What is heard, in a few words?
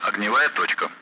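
Speech, Russian; Allied Flight; target-bunker; DCS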